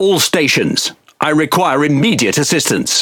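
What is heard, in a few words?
Speech Quick Commands Sos, British English; World Of Warships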